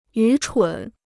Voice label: "愚蠢", Chinese

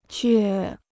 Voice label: "倔", Chinese

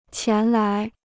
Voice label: "前来", Chinese

